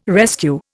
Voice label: "rescue", Indian